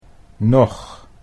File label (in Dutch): "noch", Dutch